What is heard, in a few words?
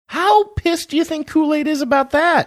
saying how pissed do you think koolaid is high pitched voice